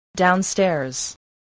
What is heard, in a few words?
"downstairs", spelling